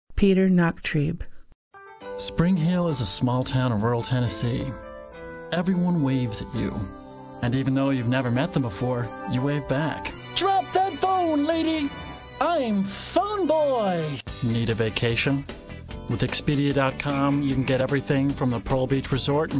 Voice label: male voice